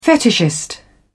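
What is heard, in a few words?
"fetishist", English, British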